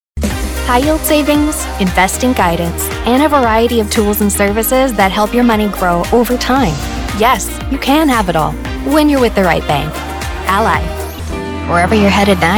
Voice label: Commercials, female

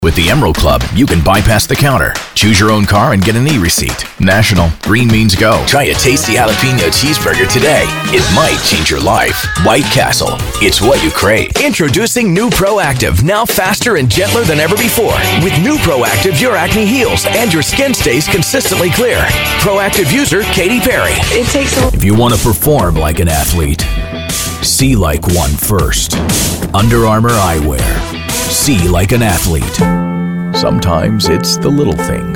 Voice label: Commercial, male

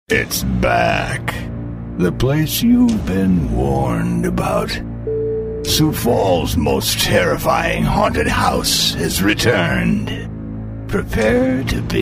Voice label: holiday commercial voice over; HALLOWEEN; Holiday Specialty Voices

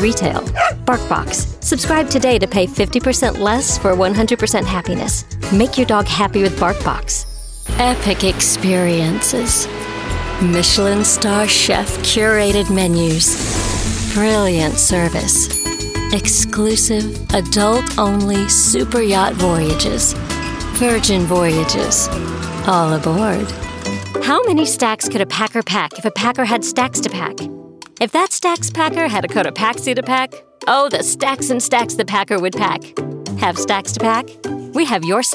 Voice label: Commercials, Female Voice Over

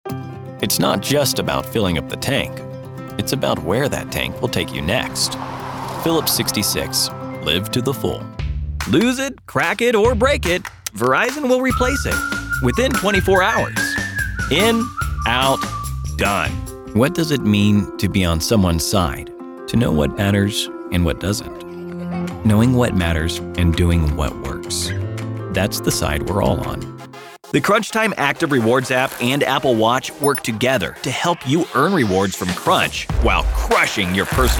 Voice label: Commercial, male; male voice actor; voiceover

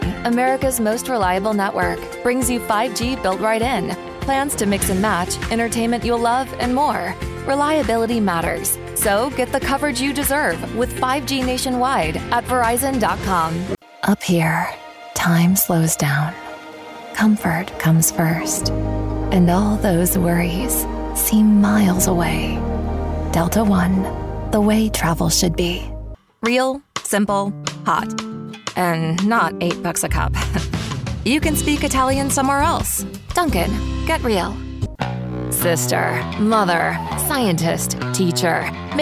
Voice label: Commercial, female

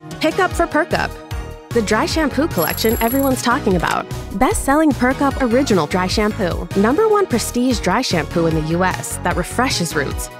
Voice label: Commercial, female commercials

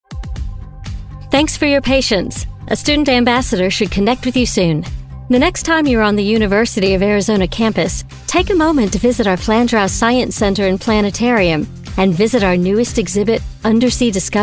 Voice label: Voicemail, Voicemail Voice Actor

